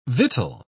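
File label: "victual", British English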